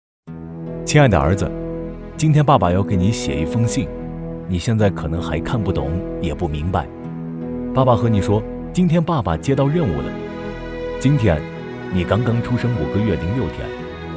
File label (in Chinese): 男 人物独白; 写给孩子的一封信